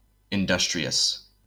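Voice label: "industrious", US English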